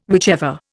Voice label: "whichever", Indian

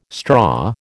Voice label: "straw", American

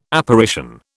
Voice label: "Apparition", British

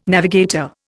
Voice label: "navigator", Indian

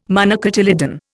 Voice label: "monocotyledon", Indian

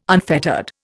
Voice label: "unfettered", Indian

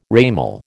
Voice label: "ramal", American

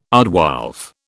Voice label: "aardwolf", British

